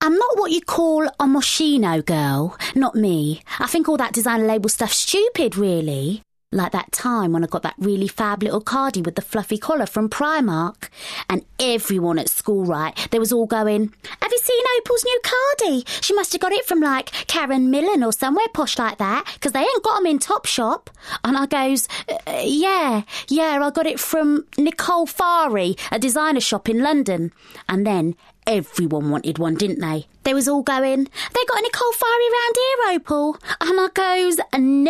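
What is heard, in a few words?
drama; 20s-30s. Male. London. Home Studio.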